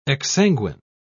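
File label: "exsanguine", British English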